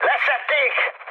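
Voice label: Hungarian aircraft destroyed mood high, speech; War Thunder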